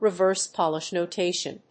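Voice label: "Reverse Polish notation", English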